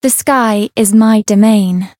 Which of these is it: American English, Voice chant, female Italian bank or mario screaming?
Voice chant